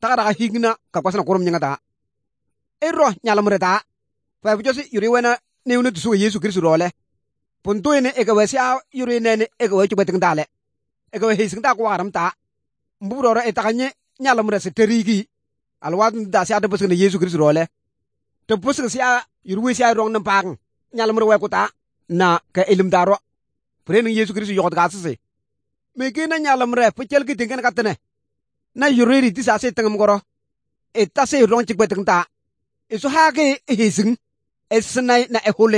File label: good news, kabiye bible